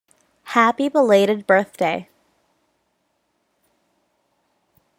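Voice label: pronunciation "happy belated birthday", English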